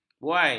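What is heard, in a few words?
"buai", Malay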